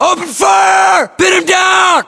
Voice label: order action suppress, US; localized english; battlechatter